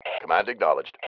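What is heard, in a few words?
command acknowledge, male; cmdacknowledge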